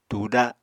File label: "tùda", Apatani